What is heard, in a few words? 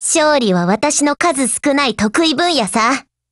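WIN Japanese, Voice; GF Alarm; AA12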